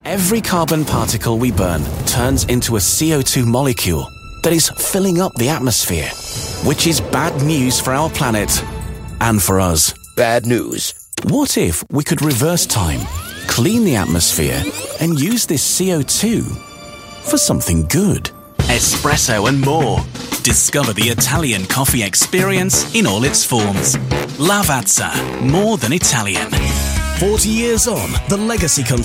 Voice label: Northern Voiceover, Yorkshire

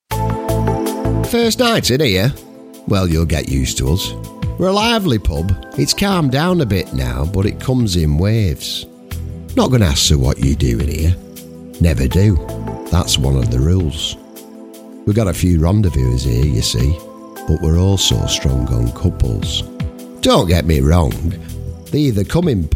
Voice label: character

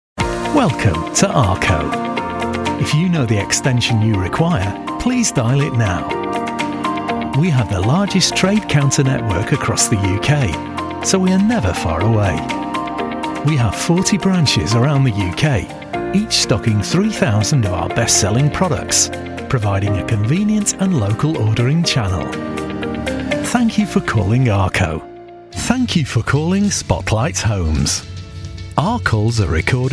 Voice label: on-hold

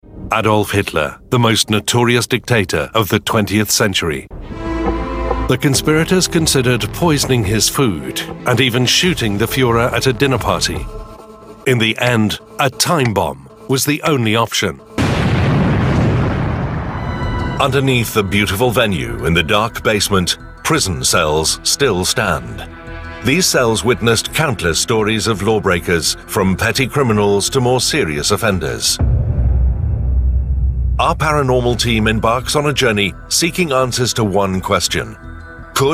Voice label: documentary, Male

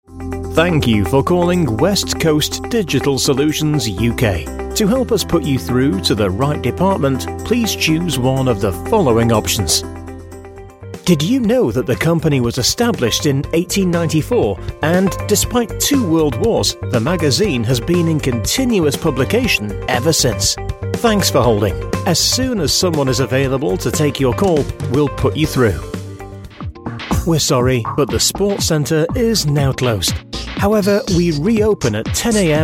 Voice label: telephone

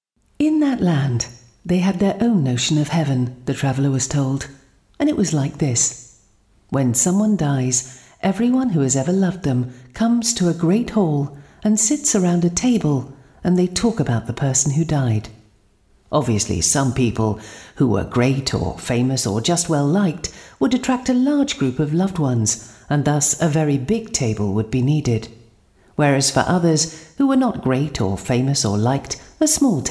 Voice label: story narration

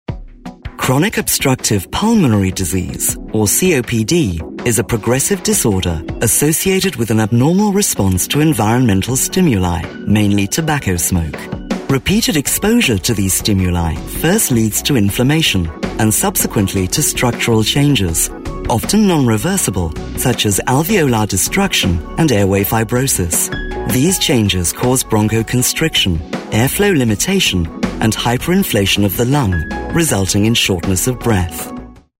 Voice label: medical, Husky Female Voice

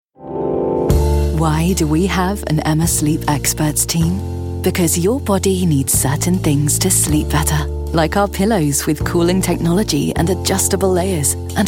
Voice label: commercial

